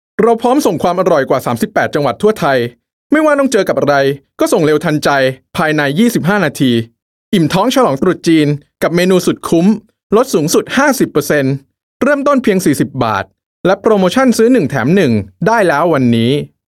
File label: Thai Commercial